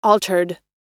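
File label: "altered", US English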